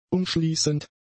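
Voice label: "umschließend", German; adjectives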